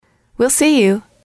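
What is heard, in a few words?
"well see you", English, female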